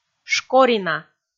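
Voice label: "шкорина", Komi, female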